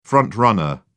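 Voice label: "front-runner", British English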